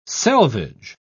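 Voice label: "selvage", British English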